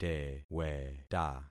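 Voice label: "teweta", concatenated syllables; Affixing experiment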